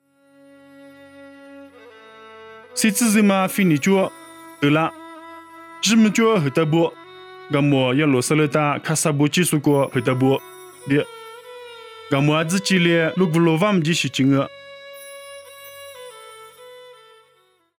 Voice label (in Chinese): 凉山彝语; 以色列迦萨; nuosu